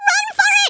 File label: "run away", American; Worms Armageddon